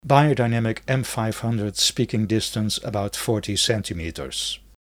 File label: Beyerdynamic M500